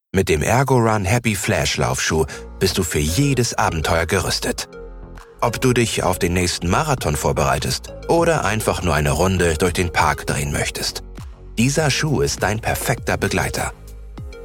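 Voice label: german e-learning, Male; German Voice Actor; born in Schleswig-Holstein in 1987 and live near Hamburg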